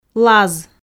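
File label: "лаз", Komi, female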